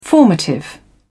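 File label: "formative", English, British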